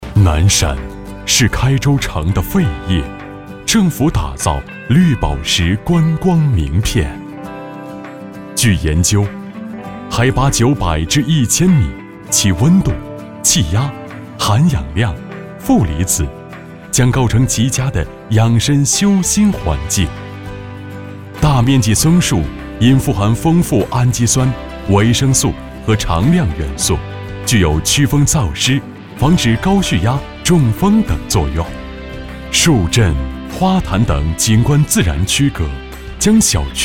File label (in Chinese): 广告 地产 温情, 男国; 南山